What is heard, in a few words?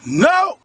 "no", male; Voice; expletives